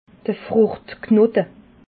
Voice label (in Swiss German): "fruchtknote", alsace